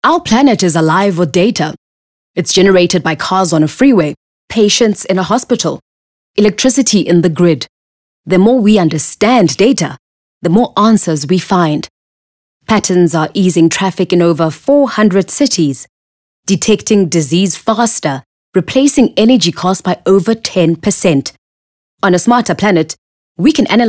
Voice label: English corporate

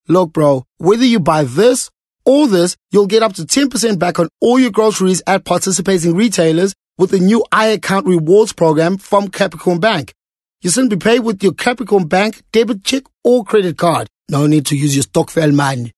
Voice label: English conversational

